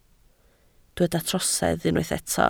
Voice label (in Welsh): "trosedd" Welsh